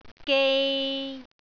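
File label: "gei3", Cantonese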